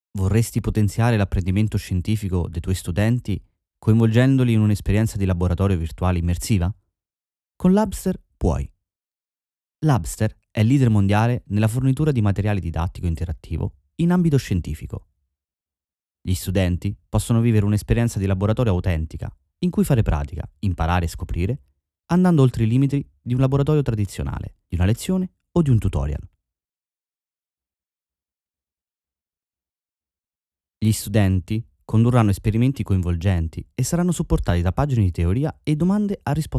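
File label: Italian, Narrative; GoLocalise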